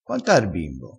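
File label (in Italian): "nda ritto", dialettale crespinese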